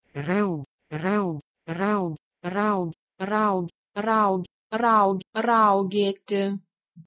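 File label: Proto-Indo-European h1reug to Lithuanian raugeti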